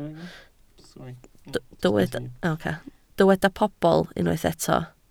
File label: "pobl", Welsh